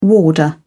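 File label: "warder", English, British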